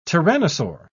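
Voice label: "tyrannosaur", British English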